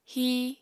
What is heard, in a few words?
"híi", Apatani